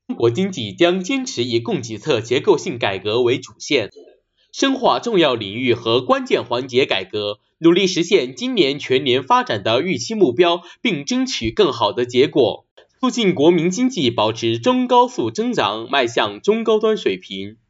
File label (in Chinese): 新闻类